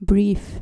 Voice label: "brief" dutch